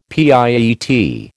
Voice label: "pietà", American

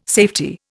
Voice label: "safety", Indian

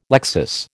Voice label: "lexis", American

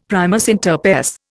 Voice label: "primus inter pares", Indian; Dictionary

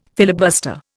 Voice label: "filibuster", Indian

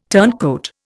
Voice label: "turncoat", Indian

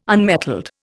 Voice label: "unmetalled", Indian

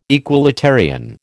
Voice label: "equalitarian", American

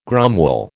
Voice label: "gromwell", American

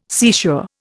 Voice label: "seashore", Indian

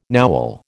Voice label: "Nowel", American

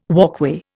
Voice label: "walkway", Indian

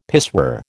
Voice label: "pissoir", American

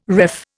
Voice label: "ref", Indian

